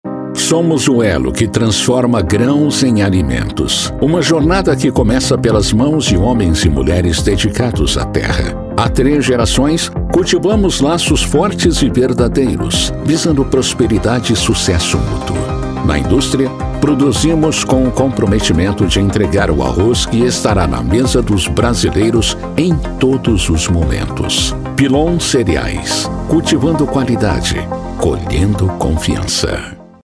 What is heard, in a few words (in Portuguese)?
spot institucional; cereais; pillon cereais